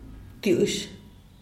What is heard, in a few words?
"btush", Diaz Ordaz; tomate